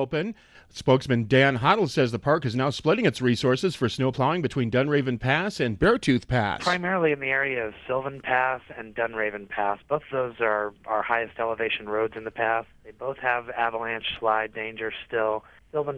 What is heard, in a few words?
Wyoming radio news